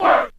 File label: what group of men